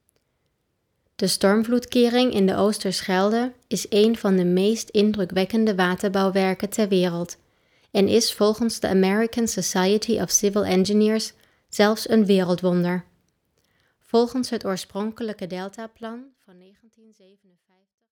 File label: Voiceover NL